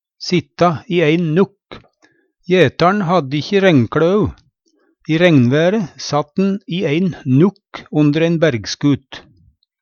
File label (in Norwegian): "nukk", Numedalsmål; sitta i ein nukk